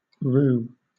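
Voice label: "rue", British English; pronunciations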